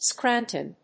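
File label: "scranton", English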